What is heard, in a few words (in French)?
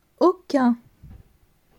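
"Aucun", French; grammaire; la negation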